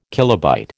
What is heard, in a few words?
"kilobyte", American